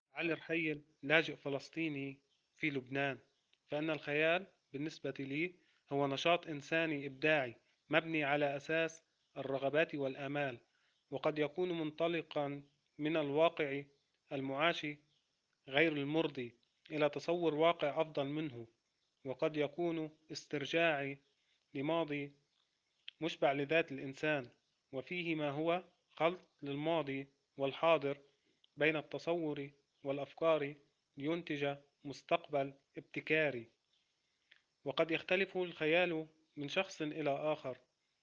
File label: Voice over